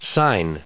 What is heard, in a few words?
"sign", us